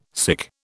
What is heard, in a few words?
"sic", British